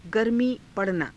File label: garmii pa ranaa, Hindi; गर्मीपड़ना; 暑くなる